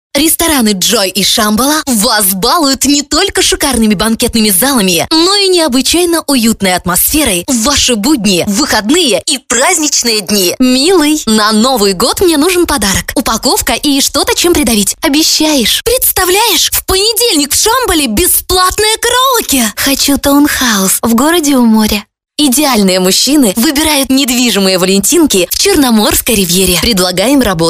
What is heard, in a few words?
rus; female